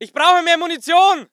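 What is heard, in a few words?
voice german infantry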